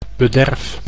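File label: "bederf", Dutch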